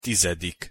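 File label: "tizedik", Hungarian pronunciation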